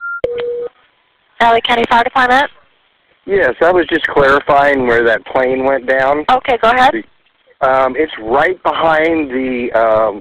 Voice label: 911 call